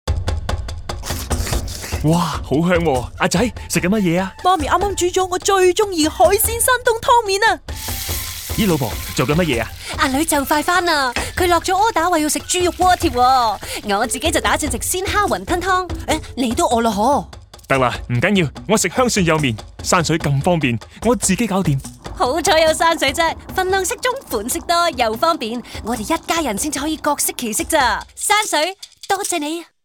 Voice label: Noodle TVC

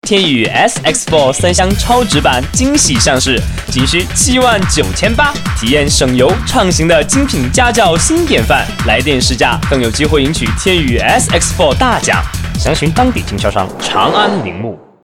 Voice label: Car TVC

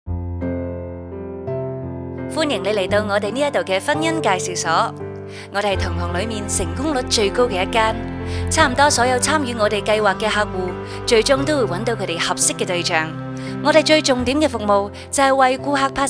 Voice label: Corporate VO

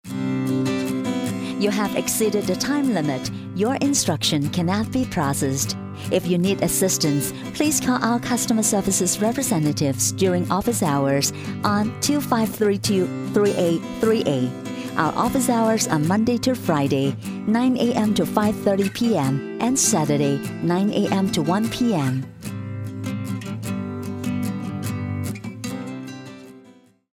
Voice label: Telephone helpline

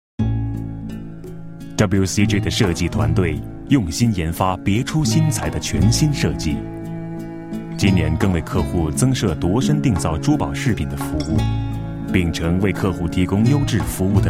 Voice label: Jewel TVC

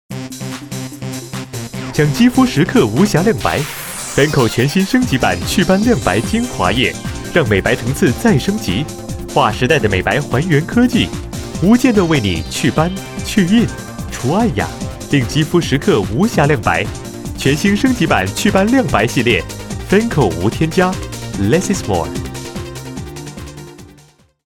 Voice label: Cosmetics TVC

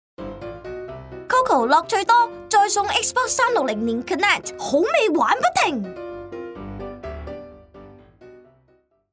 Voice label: Girl Voice

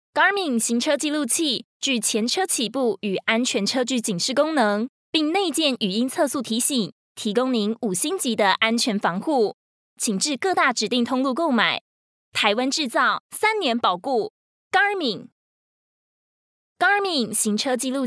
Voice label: Mand

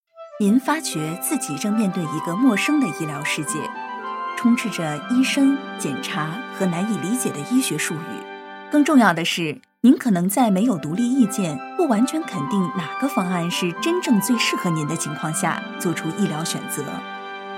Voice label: Corporate

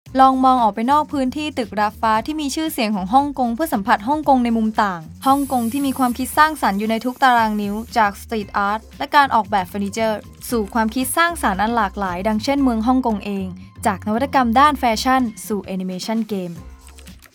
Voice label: Thai; BrandHK Thai WallToWall

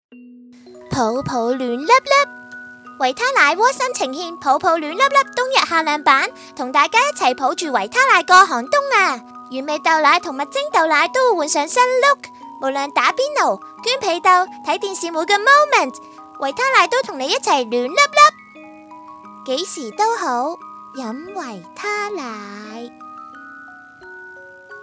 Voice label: Girl Voice

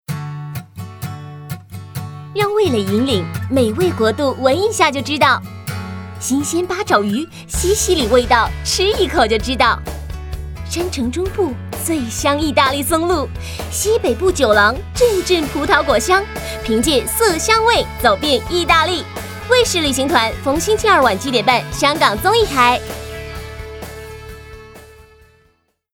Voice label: TV Channel promotion

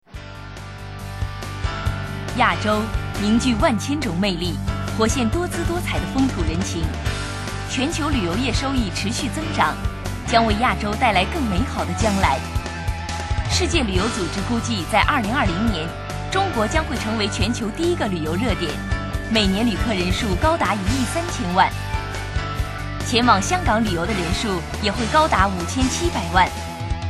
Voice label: travel promotion

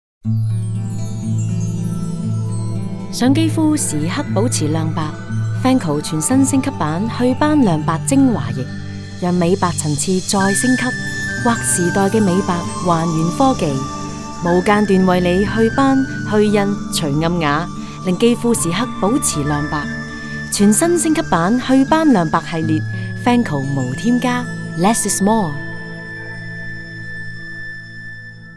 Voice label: TVC; FANCL

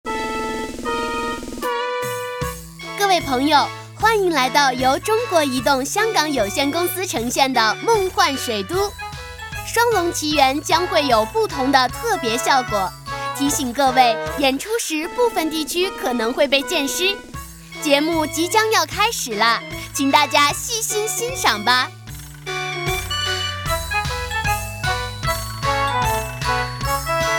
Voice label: Theme Park announcement

